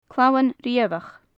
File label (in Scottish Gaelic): "clamhan riabhach", gaelic; honey buzzard; clamhain riabhach; Faclan Nàdair